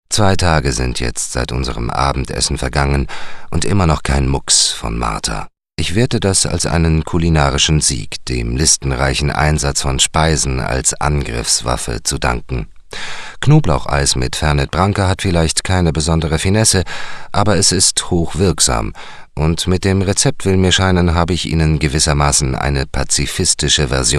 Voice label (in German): Literatur Lesung; WDR; Kochen mit Fernet-Branca; James Hamilton-Paterson